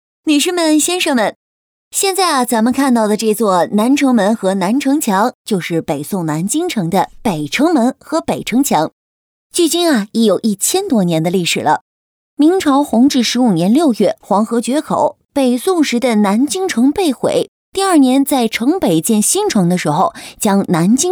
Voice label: 专题导游解说自然口吻

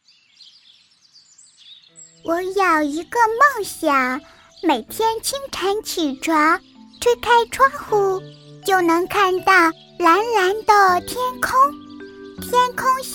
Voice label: 萌宝宝

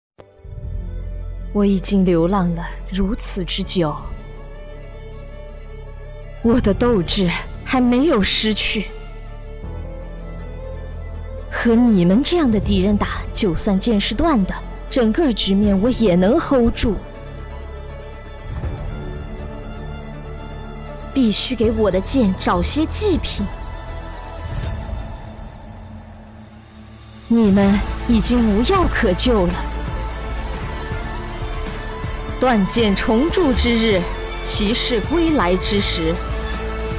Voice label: 游戏配音

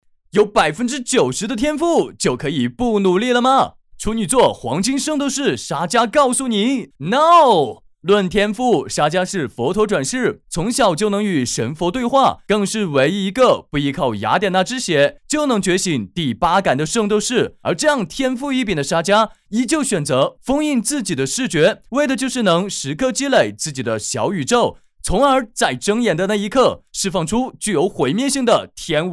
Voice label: 台湾腔; 圣斗士沙加

